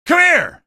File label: come here, male; video games; npc; source engine; episodic; episode 1